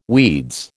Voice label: "weeds", American